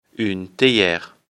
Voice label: "theiere", lecture fr

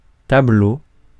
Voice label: "tableau", francais

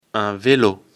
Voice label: "velo", lecture fr

